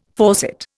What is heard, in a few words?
"faucet", Indian